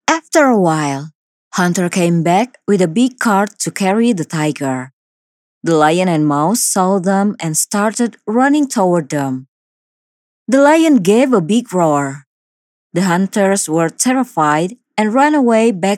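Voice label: character English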